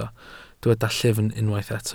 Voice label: "llyfn", Welsh

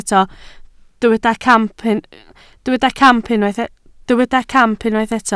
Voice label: "camp", Welsh

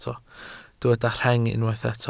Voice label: "rheng", welsh

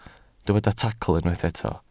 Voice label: "tacl", Welsh

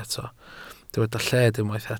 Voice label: "lled", welsh

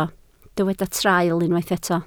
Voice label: "traul", Welsh

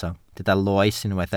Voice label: "loes", Welsh

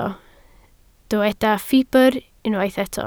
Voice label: "ffibr", Welsh

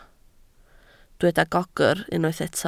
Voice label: "gogr", Welsh

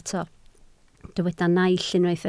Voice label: "naill", Welsh

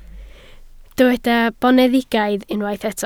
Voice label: "boneddigaidd", Welsh

